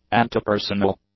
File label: "antipersonnel", English; adjectives